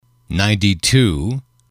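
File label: "ninety two", English, male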